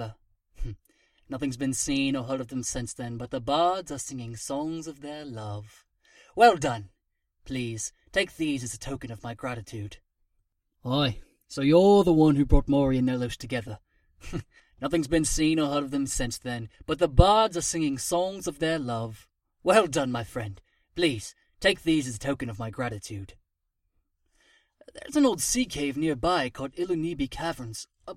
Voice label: breton, voice auditions